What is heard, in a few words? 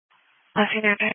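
Police Patrol (Dispatch)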